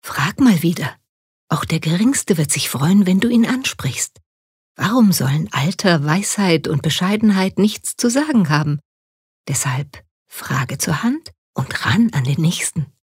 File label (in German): Werbung Sanft